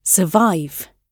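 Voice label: "survive", British English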